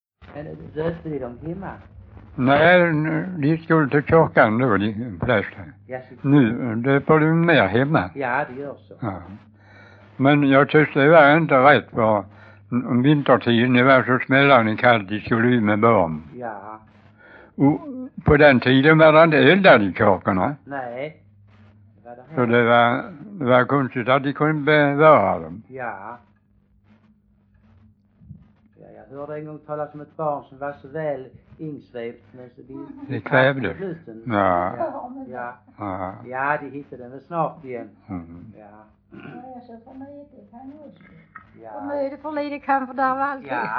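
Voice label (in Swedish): Stehag Västra Sallerup, Swedish dialect; Folkminnen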